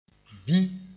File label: "bĩ", moore